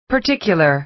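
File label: "particular", American English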